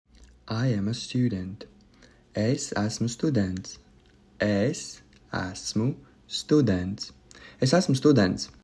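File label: "I am a student", Latvian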